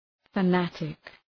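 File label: "fanatic", English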